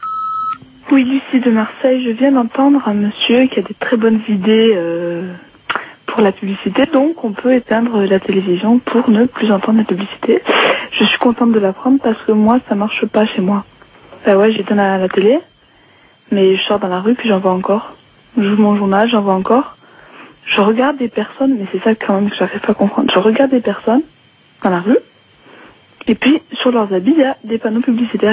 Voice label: Pub répondeur; France Inter

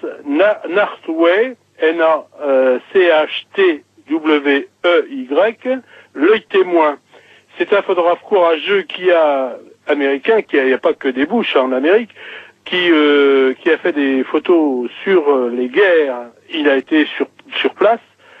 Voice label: Exposition repondeur

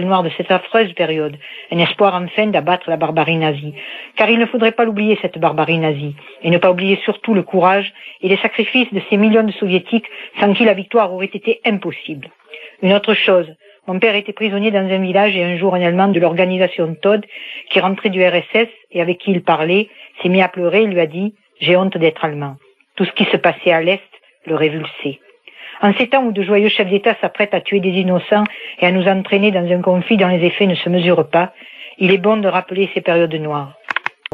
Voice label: Stalingrad repondeur

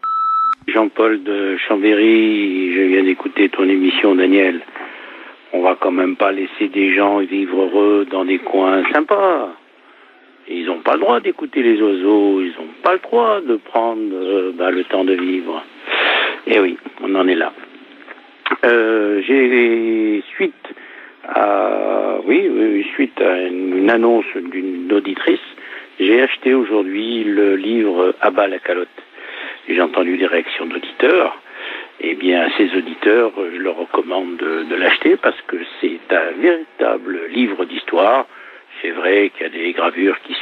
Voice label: repondeur; A bas la calotte

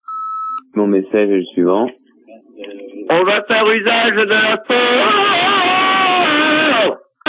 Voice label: Anti émeute répondeur

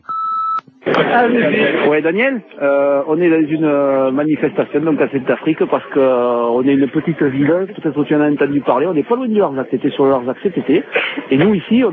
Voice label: répondeur; Fermeture hopital

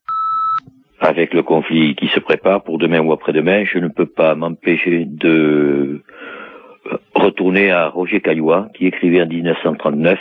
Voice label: Irak repondeur

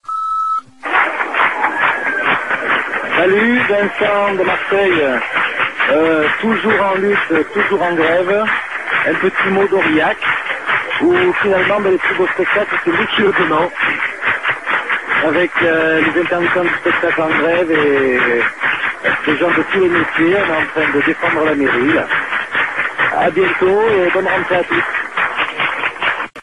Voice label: Intermittents repondeur; Aurillac

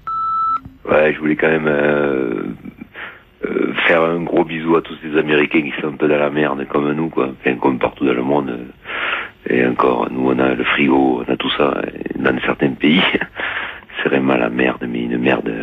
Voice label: Message bestial repondeur

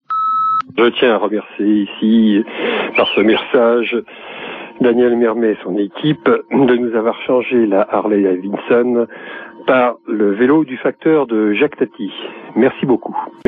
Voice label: Generique repondeur

